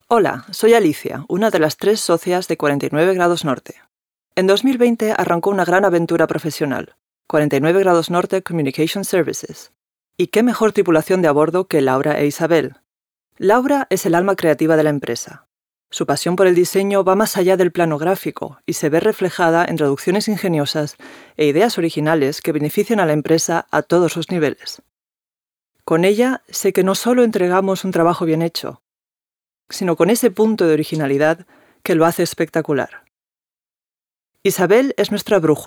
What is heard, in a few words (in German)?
Vorstellung, ES